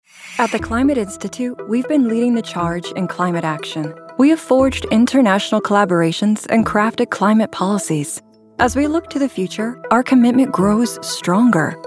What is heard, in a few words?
Narration; Female; English (North American)